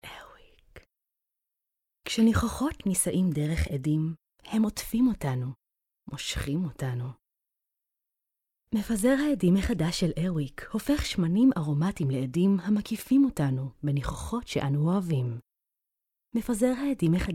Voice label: hebrew commercial